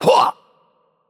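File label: Attack Heavy, Italian; VO; bloom minigame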